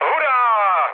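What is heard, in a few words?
player killed enemy, Czech, mood low; speech; War Thunder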